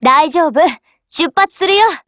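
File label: operation begin, Japanese; PP19Mod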